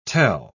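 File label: "tel-", British English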